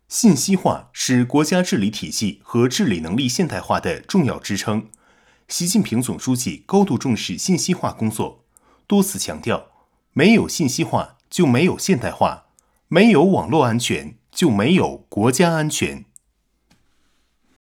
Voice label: 新闻样

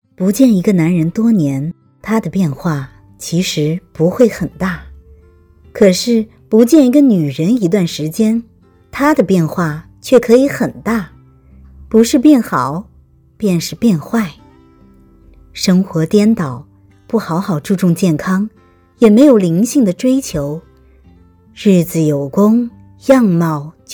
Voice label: 情感电台; 情感电台不见一个女人多年她的变化很大

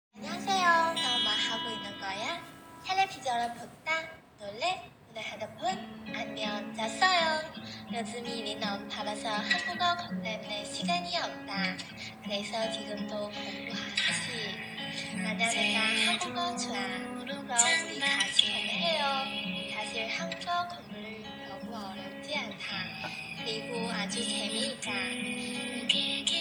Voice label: 韩语文章

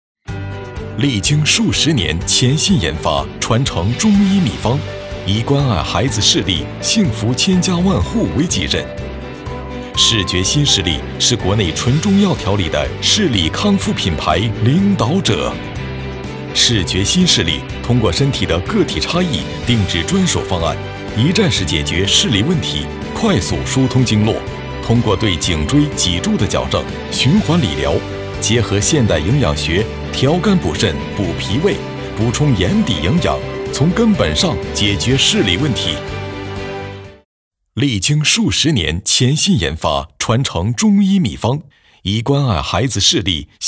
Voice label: 宣传片 电购类 坚定 力度; 康概

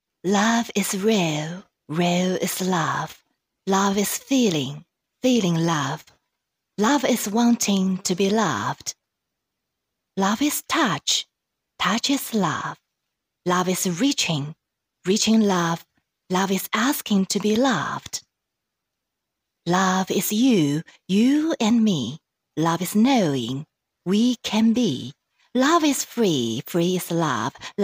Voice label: 英文公益广告旁白

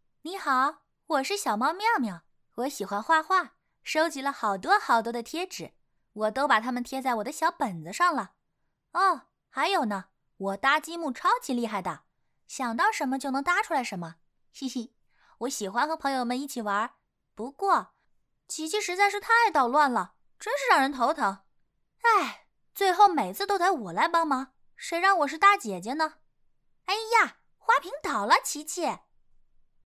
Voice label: 儿童角色女童

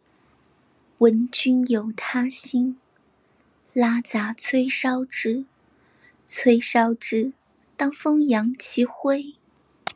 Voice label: 古风视频试音

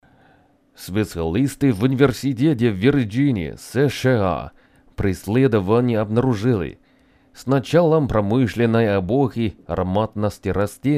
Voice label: 俄语

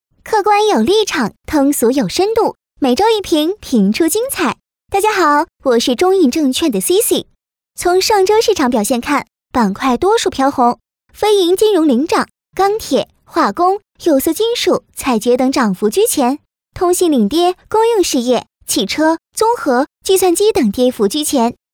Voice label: 甜美

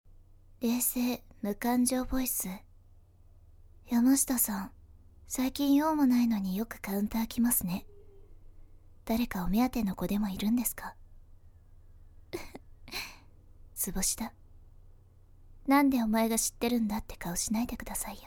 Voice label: 冷淡的姐姐

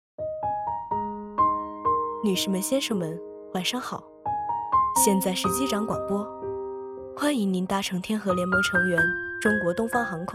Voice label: 彩铃机长广播

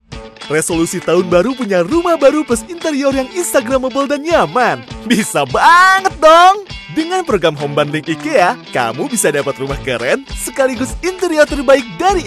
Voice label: 活泼 宣传广告; 宜家

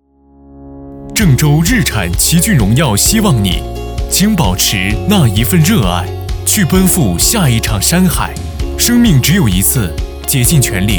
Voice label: 温馨介绍类

